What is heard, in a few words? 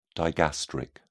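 "digastric", en, British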